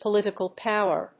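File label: "political power", English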